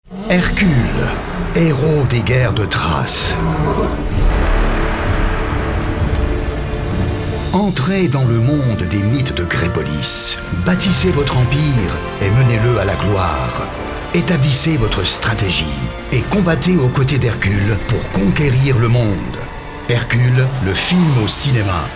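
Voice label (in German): French, Dynamisch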